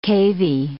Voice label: "cavy", English

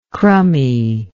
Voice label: "crumminess", english

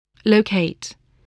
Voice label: "locate", spelling

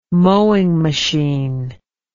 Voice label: "mowing machine", English

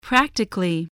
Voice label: "practically", voice, spelling

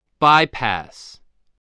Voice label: "bypass", spelling

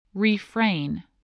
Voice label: "refrain", spelling voice

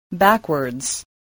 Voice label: "backwards", english

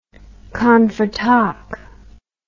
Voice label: "confrotalk", English

